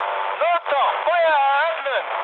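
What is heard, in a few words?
German attack position mood med, speech; War Thunder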